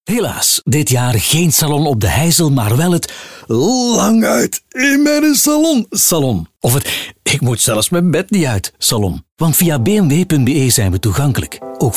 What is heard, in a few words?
BMW Salondeals Commercial